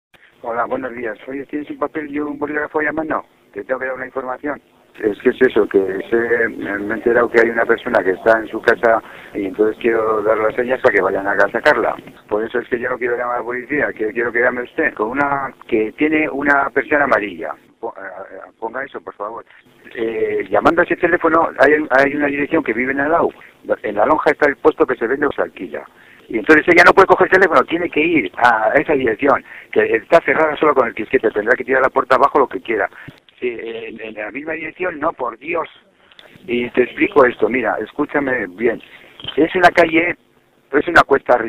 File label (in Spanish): voz de policia